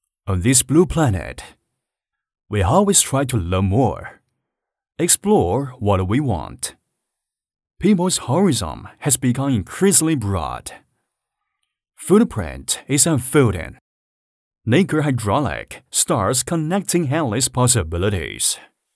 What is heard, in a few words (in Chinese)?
男